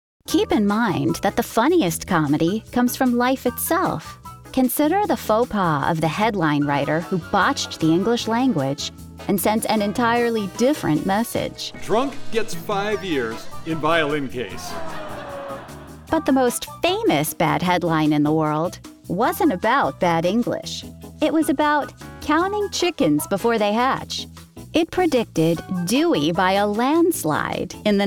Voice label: E Learning